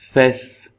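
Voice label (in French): "fesses", French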